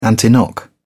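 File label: "antiknock", British English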